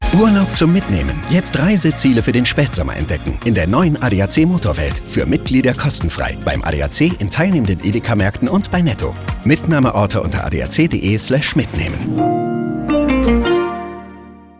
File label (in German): Spots OFF, maenner; ADAC Motorwelt